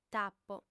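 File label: "t'apo", Sardinian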